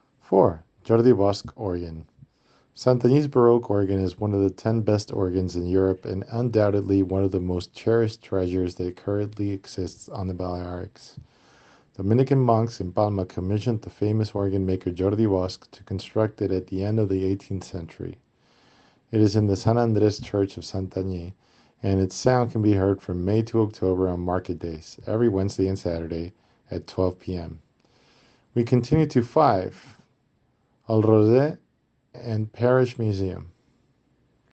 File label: Organ EN